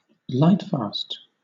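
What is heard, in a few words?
"lightfast", British English; pronunciations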